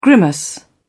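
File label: "grimace", British English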